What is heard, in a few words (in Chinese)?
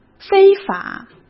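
"非法", Mandarin Chinese; chinese flashcards; hsk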